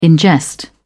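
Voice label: "ingest", British English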